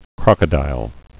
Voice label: "crocodile", English